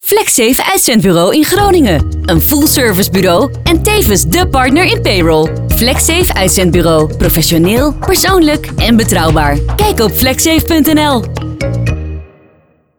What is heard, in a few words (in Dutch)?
Commercial; Flexsave Uitzendbureau 2019 RADIONL